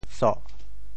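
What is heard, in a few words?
"soh4", Teochew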